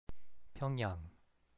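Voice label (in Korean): "평양", Korean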